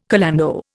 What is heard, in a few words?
"calando", Indian